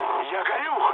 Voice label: on fire, mood low, speech ru; War Thunder